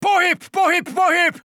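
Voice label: Czech positive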